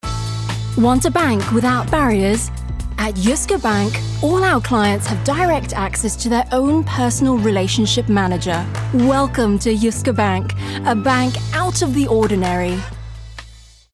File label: UK VO Reel